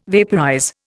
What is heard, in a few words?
"vaporize", Indian